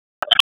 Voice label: Police North District Tac 1, p25; Raleigh; wake

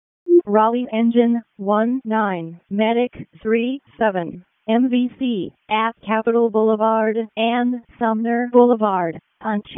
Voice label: EMS ALERT; p25; wake

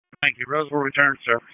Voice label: FIRE OPS, p25; wake

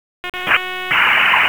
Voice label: Wake Comm Center Intersystem, p25

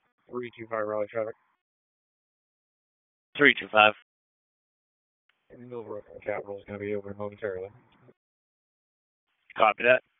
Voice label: Police Northeast District Disp, p25

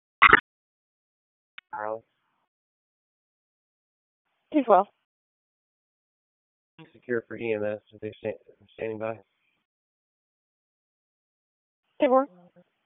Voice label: Police Northeast District Disp, p25; Raleigh; wake

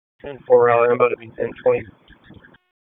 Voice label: Police Northeast District Disp, p25; Wake

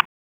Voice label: Police Southeast District Tac; p25

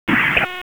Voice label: FIRE OPS, p25; wake p25

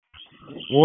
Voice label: FIRE OPS, p25; wake

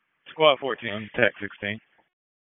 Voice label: PS TAC, p25; wake

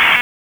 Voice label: Police Tac, p25

